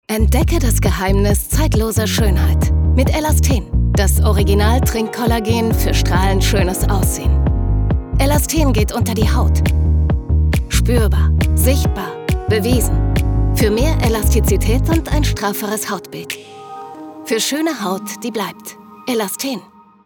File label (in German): Werbung; Elasten